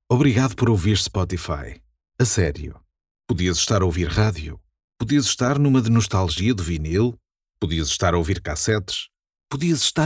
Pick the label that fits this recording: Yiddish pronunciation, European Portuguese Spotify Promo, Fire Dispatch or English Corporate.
European Portuguese Spotify Promo